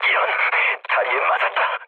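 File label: Korean damaged pilot mood low, speech; War Thunder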